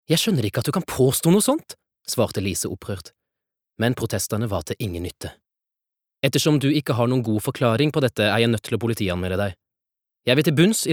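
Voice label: Norwegian audio book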